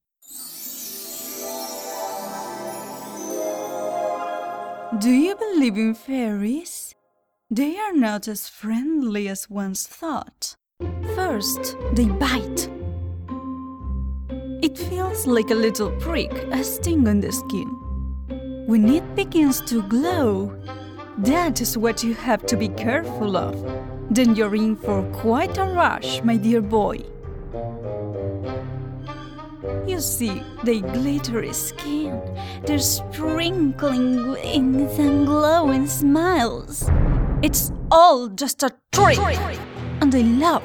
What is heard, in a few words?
character accented English, voice-overs